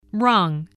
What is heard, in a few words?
"rung", English spelling